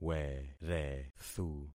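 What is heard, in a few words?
"werethu", concatenated syllables; Affixing experiment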